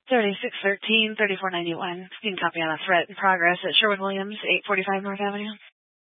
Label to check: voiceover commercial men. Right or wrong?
Wrong